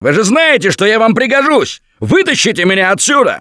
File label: call for rescue, russian; Gambler